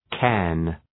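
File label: "cairn", English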